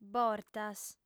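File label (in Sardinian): "bortas", female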